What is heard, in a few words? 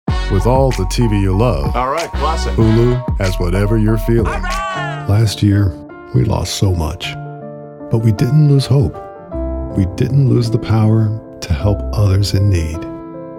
Commercial